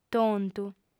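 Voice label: "tontu", Sardinian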